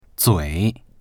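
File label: "zui3", Mandarin Chinese; 嘴; 口; dictionary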